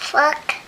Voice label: "fuck", baby; Voice; expletives

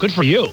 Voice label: "good for you", announcer

